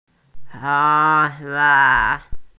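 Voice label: "he2hua1", Chinese, female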